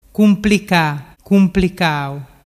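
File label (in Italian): "cumplicacau", Vernazzese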